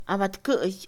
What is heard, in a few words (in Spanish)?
Mixe, Mujer; debajo; INALI